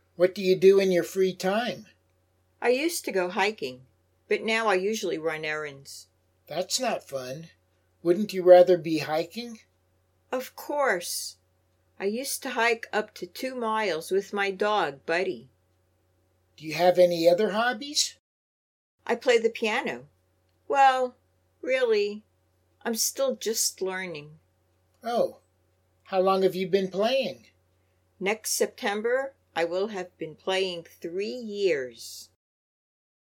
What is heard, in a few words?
Natural Dialog Complete